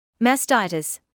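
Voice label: "mastitis", British English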